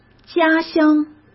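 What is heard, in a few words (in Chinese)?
"家乡", Mandarin Chinese; hsk; chinese-flashcards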